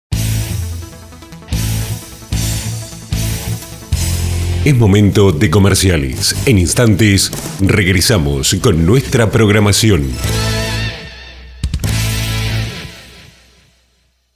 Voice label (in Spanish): comercial sida